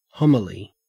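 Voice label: "homily", Australian English; pronunciations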